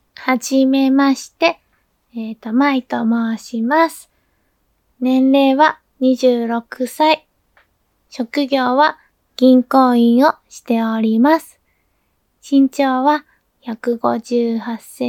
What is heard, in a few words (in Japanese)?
自己紹介インタビュー